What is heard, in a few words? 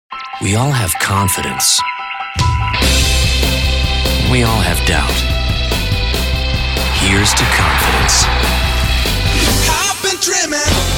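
Commercial, real people male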